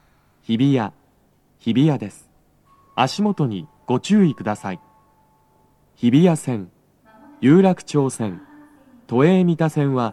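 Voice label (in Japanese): 到着放送 男声, 各駅停車 取手行; 日比谷駅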